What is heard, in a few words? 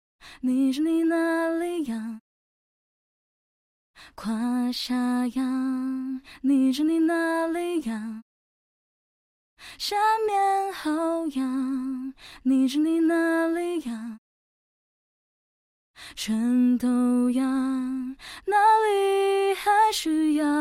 woman